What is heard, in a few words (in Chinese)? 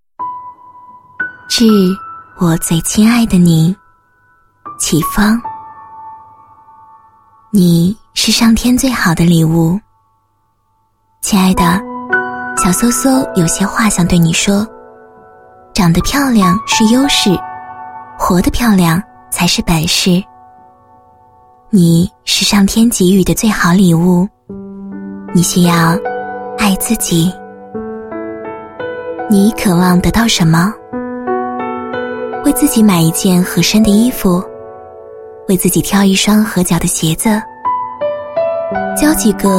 女19 旁白 懵懂 纯真 梦境, 国语女声配音; 给女孩子的一封信